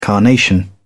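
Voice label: "carnation", British English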